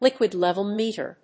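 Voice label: "liquid level meter", English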